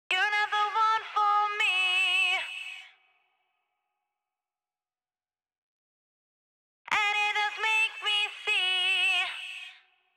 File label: Verse Telephone Adds, 140 BPM; Vengeance Samples